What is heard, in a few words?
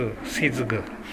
"sezuku", minamiyamagata